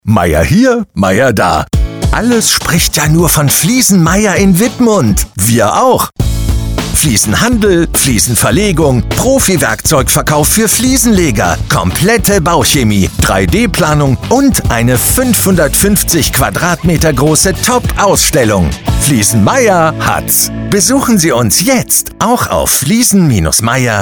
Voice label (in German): Funkspot; ZGO; Fliesen Meyer; Image